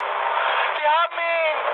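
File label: German aircraft destroyed mood high, speech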